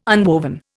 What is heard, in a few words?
"unwoven", Indian